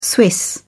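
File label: "swiss", British English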